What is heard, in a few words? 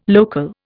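"local", Indian English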